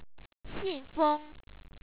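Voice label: "xi4nfe1ng", Chinese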